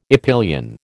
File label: "epyllion", American